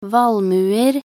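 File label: "valmuer", Norwegian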